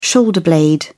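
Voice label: "shoulder blade", British English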